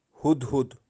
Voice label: "huhhud", birds of kashmir hoopoe